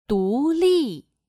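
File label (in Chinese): "独立", Chinese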